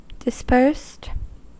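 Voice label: "dispersed", American English; pronunciations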